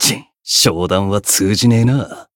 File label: Voice encount jp